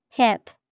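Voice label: "hip", English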